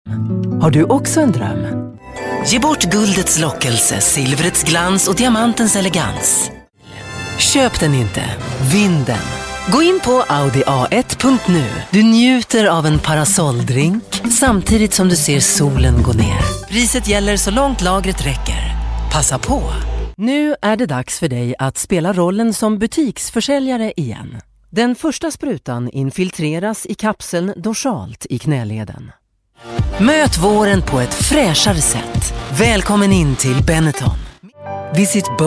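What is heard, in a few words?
swedish female commercial; voice demos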